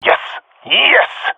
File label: cheer, jungle male epic; player vo; Counter Strike Model Pack; CS:GO